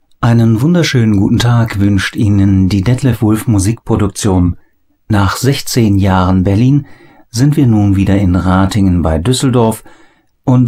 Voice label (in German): Ansage